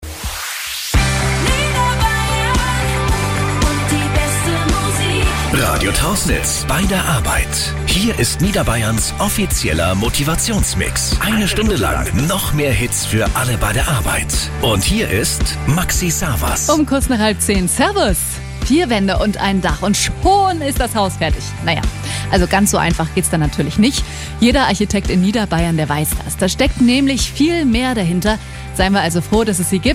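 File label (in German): Kommentar Dynamisch; Fremdtake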